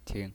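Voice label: "ting", pinyin voice, neutral tone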